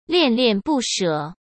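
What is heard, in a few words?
"恋恋不舍", Mandarin Chinese; hsk; chinese-flashcards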